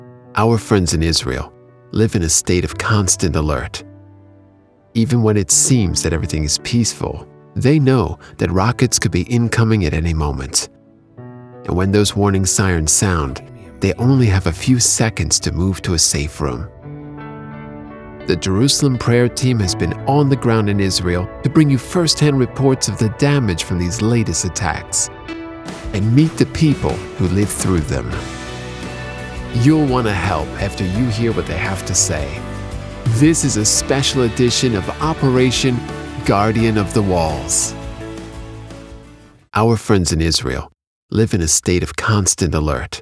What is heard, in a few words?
Narrator, Somber; Guardian Of The Walls